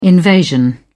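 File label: "invasion", British English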